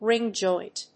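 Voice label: "ring joint", English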